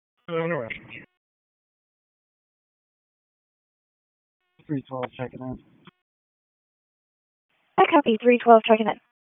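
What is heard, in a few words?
Raleigh Police Northeast District Dispatch, p25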